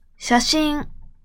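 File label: "写真", Japanese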